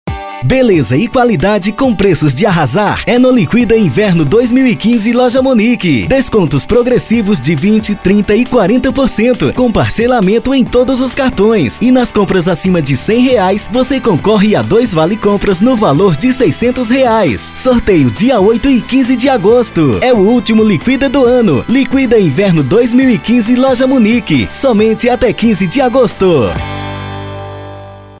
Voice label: VOZ IMPACTO GRAVE vinheta para liquidacao de inverno spot chamada; comercial pro

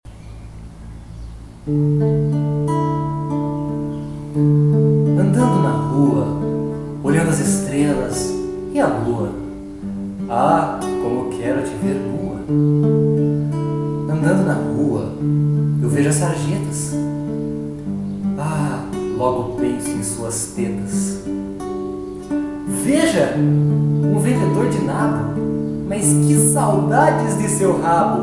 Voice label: poesia sensual

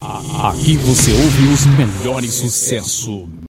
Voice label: vinhetas aqui vc ouve os melhores sucesso com fundo voz

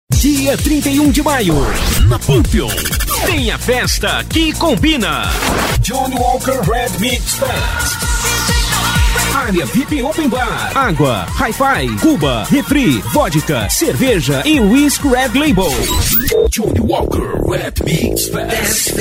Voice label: voz jovem padrao coloquial e alguma caricata; estudio ociano

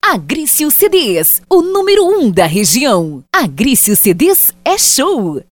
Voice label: VOZ IMPACTO GRAVE vinheta; para gravador de cantores e bandas

